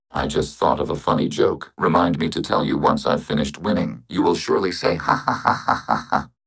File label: happy; fertig classic games